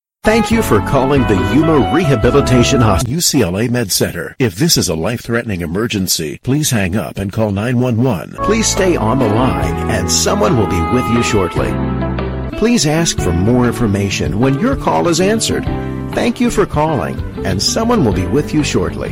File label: On-hold